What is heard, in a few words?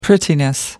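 "prettiness", British English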